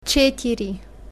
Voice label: "chetiri", Bulgarian numbers